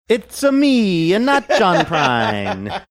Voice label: saying it's a me stereotypical italian accent